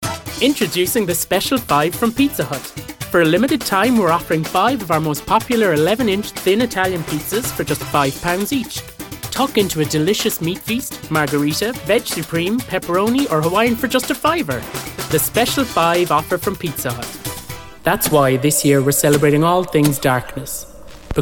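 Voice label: English, commercial, Irish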